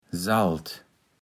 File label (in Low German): "Salt", Platt